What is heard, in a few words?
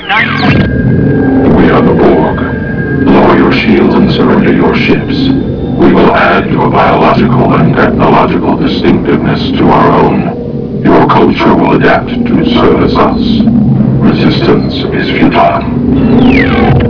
Borg Speech; AssimilationBeam; First Contact